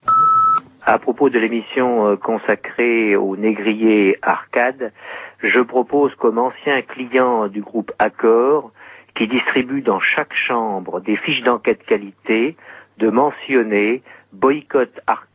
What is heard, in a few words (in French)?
répondeur; ACCOR